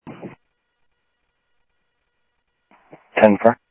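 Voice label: Sheriff West County, scanner audio trunk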